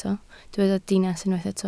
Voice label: "dinas", Welsh